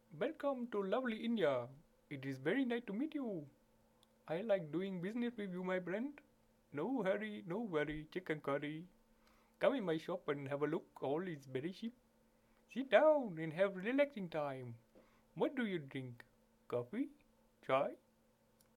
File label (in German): Indisch english